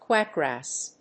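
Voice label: "quack grass", English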